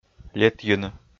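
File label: "летйыны", Komi, male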